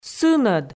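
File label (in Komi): "сынӧд", Komi, female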